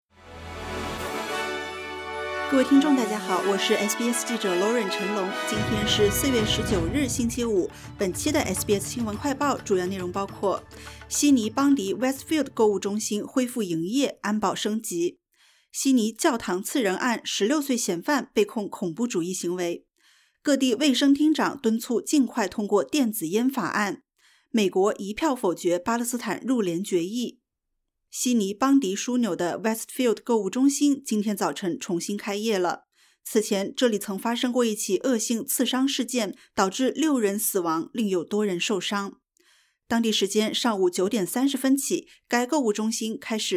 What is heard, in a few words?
mandarin news flash; SBS; 新闻快报